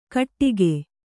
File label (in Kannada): "ಘಟ್ಟಿಕೆ", Kannada